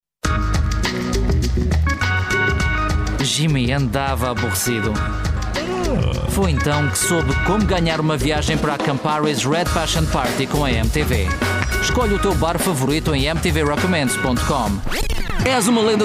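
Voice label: European Portuguese, Commercial; GoLocalise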